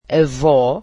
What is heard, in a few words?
"grandmother", Portuguese